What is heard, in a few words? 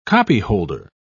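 "copyholder", British English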